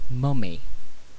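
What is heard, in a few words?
"mummy", British English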